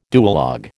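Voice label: "duologue", American English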